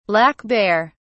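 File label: "black bear", British English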